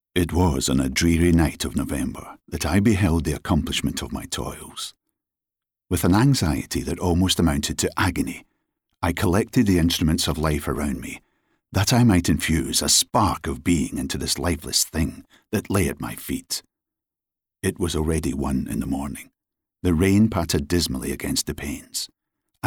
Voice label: narration

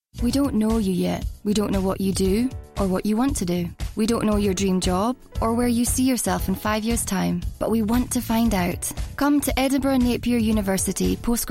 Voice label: Scottish voice over Commercial

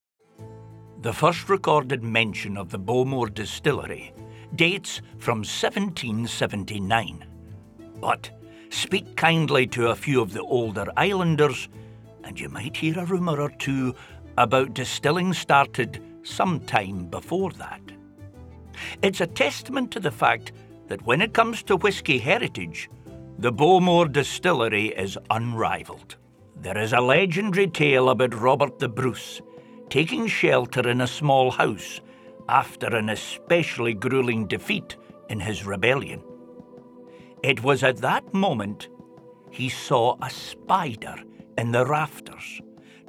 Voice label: narration

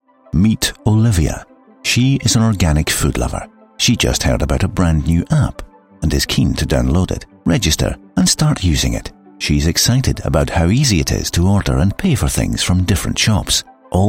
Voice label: Commercial

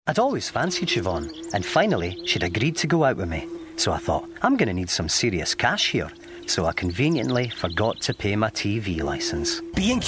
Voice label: commercials

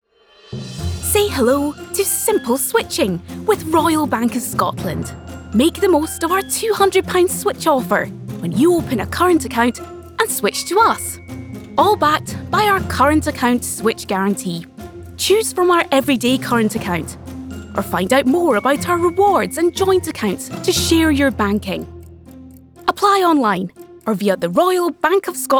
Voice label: Banking Advert, Scottish

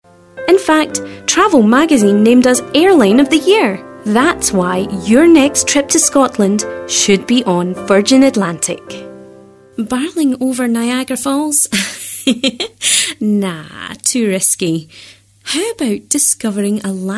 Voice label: Commercial

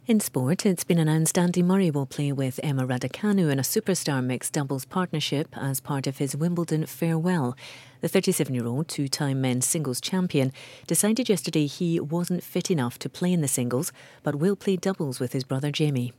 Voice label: upbeat, voices

